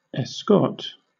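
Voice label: "escort", British English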